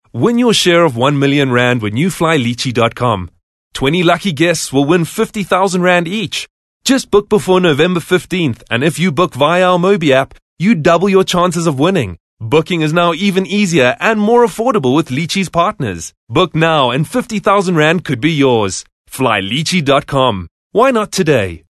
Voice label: English Conversational